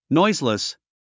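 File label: "noiseless", English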